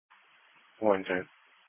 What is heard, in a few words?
Police Patrol 1 (Dispatch)